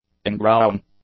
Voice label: "ingrowing", English

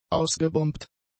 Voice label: "ausgebombt", German; adjectives

